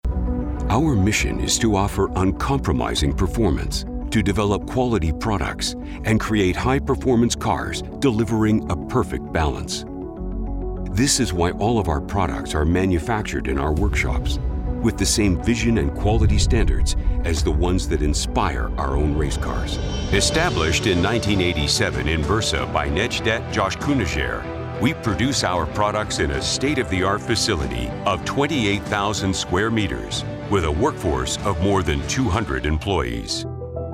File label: voiceover examples